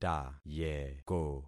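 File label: "tayeko", concatenated syllables; Affixing experiment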